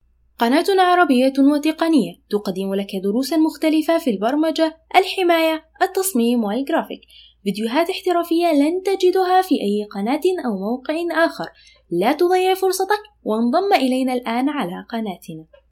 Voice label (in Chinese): 阿拉伯语女 Youtube广告